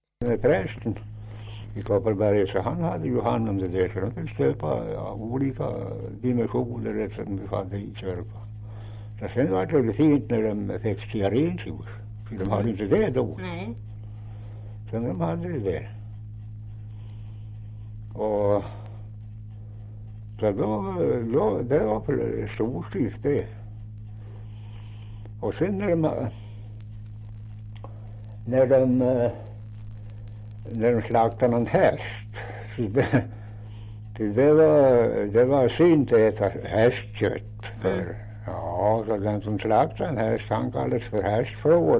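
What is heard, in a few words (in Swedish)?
Kopparberg Västmanland, dialekter; Folkminnen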